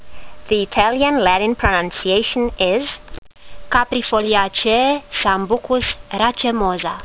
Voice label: latin; Sambucus racemosa